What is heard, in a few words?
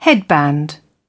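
"head", British English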